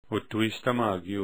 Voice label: announcer